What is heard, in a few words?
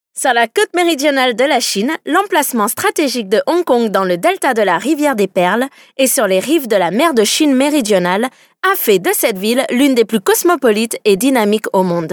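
French female corporate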